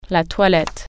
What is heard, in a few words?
"toilet", french